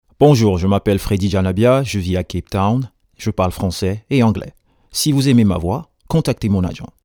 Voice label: intro french

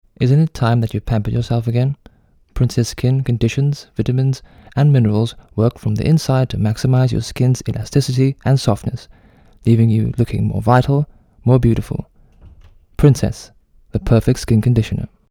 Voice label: soft sell

